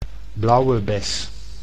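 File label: "blauwe bes", Dutch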